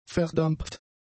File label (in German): "verdampft", German; adjectives